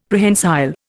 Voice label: "Prehensile", Indian; Dictionary